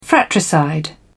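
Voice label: "fratricide", British English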